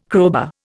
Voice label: "crowbar", Indian